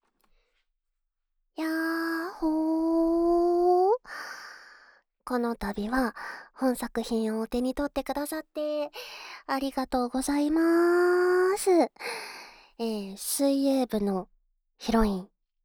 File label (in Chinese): 声优访谈; 无SE